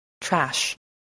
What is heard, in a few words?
"trash", voice